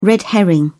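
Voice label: "red herring", British English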